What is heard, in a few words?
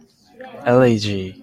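"allergy", Japanese, male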